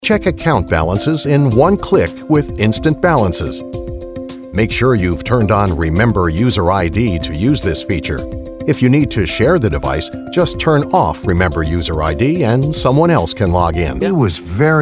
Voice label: general narration